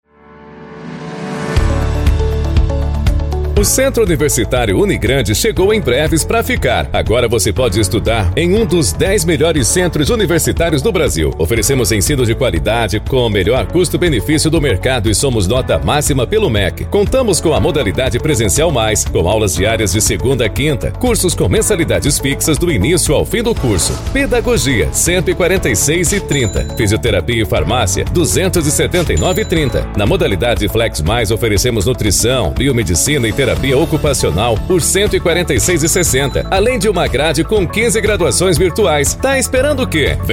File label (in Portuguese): locutor, comerciais; Centro Universitario; Unigrande em Breves Para